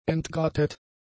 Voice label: "entgratet", German; adjectives

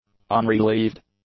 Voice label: "unrelieved", english; adjectives

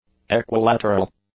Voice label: "equilateral", English; adjectives

